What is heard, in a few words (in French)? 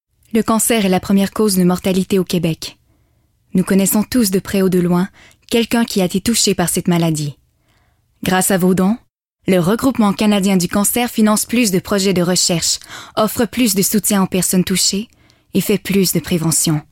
annonceur narration fr normatif affirme; regroupement canadien du cancer; determinee